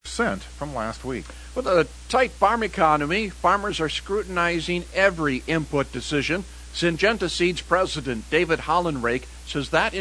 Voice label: air check; Syngenta